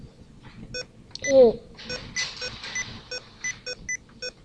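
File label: "book", spoken word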